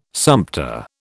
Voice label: "sumpter", British